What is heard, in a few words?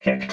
"kicked", robot